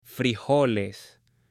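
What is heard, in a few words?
"frijoles", Spanish, male; AppLenguaje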